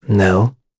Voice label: vox Deny, en; Lucias; kingsraid; voices heroes en

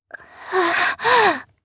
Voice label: Dead, jp; heroes; Sonia; kingsraid

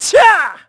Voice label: Attack, kr; Riheet; Kings Raid

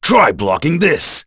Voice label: Vox Skill, en; kingsraid; voices heroes

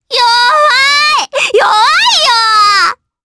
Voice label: Vox Skill, jp; Viska; kingsraid

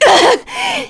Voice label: Damage, kr; Naila; Kings Raid

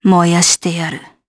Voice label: Vox Skill, jp; Valance; kings raid

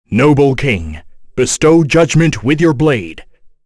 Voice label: Vox Skill, en; kingsraid; voices heroes

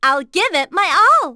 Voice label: Vox Skill, en; Juno; kingsraid; voices heroes en

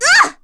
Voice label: Damage, kr; Chrisha; Kings Raid

